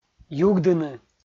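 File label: "югдыны", Komi, male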